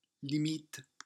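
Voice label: "limite", French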